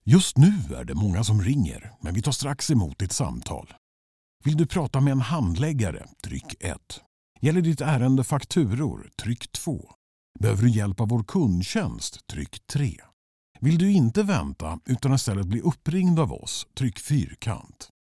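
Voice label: ivr, Swedish; e-learning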